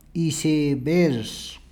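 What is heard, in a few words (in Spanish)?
"iceberg", Spanish, Peru, Cuzco; [iseˈbeɾʂ]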